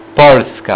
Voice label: "Polska", Polish; polishdict